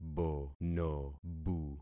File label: "bonobu", concat syllables; Affixing experiment